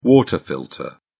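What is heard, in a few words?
"water filter", British English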